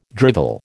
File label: "drivel", American